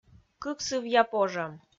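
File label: "кык сывъя пожӧм", Komi-Zyrian, female; kpv audio dict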